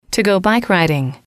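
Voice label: "to go bike riding", English, female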